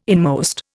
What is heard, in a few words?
"inmost", Indian